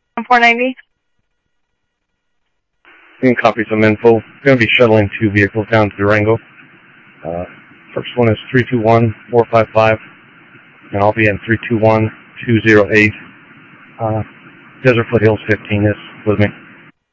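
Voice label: Sheriff East County, scanner audio trunk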